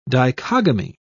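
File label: "dichogamy", British English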